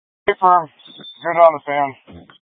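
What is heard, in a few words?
Incident Tac, Scanner audio recording; Incident Tac 1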